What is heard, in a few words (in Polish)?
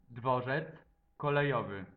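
"dworzec kolejowy", Polish; multimedia glossaries; transport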